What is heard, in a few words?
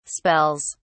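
"spells", English, British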